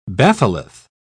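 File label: "batholith", British English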